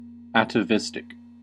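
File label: "atavistic" English, US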